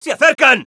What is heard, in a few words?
Scout incoming, es; "¡Se acercan!"